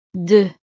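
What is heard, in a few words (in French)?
"deux", French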